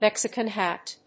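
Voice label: "mexican hat", English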